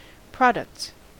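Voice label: "products", US English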